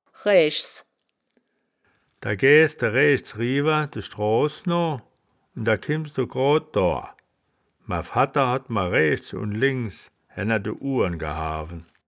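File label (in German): "raechs", Wittlicher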